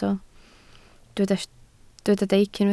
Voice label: "deic", Welsh

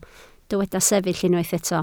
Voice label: "sefyll", Welsh

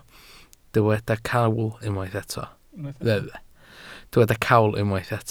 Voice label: "cawl", Welsh

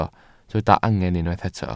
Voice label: "angen", Welsh

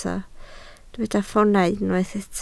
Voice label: "ffoonau", Welsh

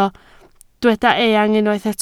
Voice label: "eang", Welsh

